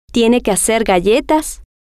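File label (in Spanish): tiene Que Hacer Galletas, spanish, female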